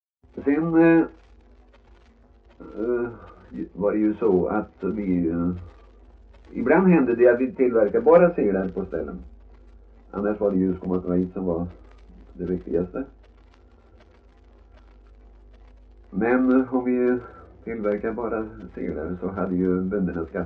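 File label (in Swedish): Värö Halland, Svenska sagor dialekter; Folkminnen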